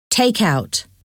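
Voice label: take out, English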